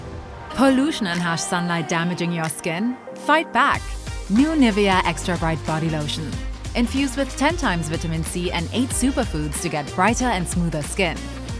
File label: reel German accent